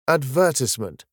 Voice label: "advertisement", イギリス英語